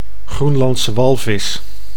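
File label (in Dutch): "Groenlandse walvis", Dutch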